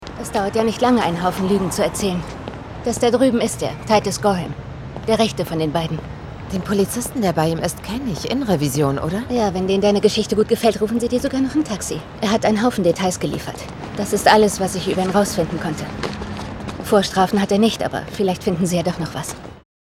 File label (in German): Synchron; Elementary